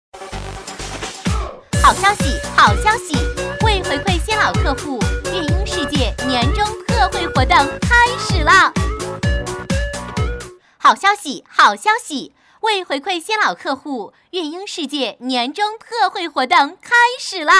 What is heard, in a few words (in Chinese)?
女 母婴促销好消息（激情）